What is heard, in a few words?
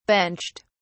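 "benched", British English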